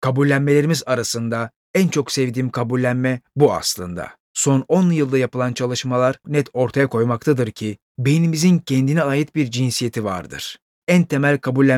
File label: Turkish, turkish voice actors